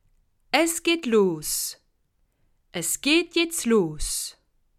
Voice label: "los", alsace

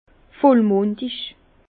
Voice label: "vollmundig", Bas Rhin; vin

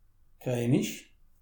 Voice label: "creemisch", alsace

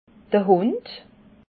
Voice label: "hund", alsace

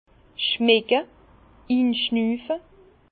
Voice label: "schmecke", Bas Rhin; vin; sentir humer

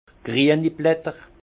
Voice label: "grieniblatter", Alsace; vin; feuilles vertes

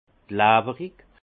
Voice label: "klawerig", Alsace; vin; visqueux

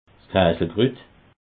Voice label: "kaselkrut", alsace

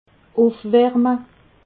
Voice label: "ufwarme", alsace

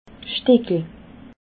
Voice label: "stickel", alsace

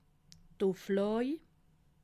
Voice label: "ufflaw", alsace

